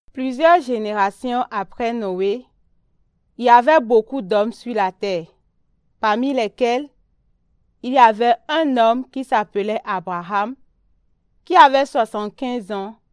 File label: French; L'appel d'Abraham; God Calls Abraham